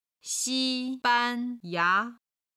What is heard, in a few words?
"xi1ban1ya2", Chinese